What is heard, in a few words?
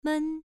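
"men", tone 1, pronunciation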